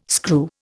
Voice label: "screw", Indian